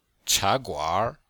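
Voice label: "cha2guanr3", Chinese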